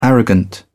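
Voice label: "arrogant", British English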